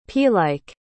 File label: "pealike", pronounce